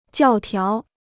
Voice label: "教条", Chinese

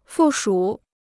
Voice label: "附属", Chinese

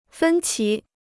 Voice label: "分歧", Chinese

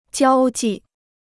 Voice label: "交际", Chinese

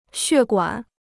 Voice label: "血管", Chinese

